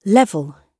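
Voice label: "level", British English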